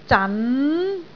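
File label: "zan2", Cantonese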